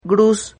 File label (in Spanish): "gruz", Silabas